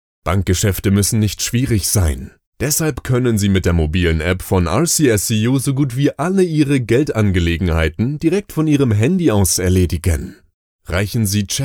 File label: Sincere